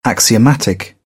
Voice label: "axiomatic", British; ɾ - t; English